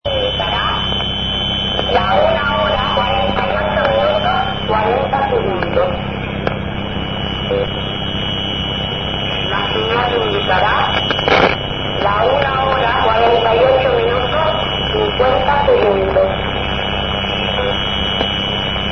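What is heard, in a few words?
upper sideband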